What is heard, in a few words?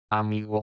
"amigo", Spagnolo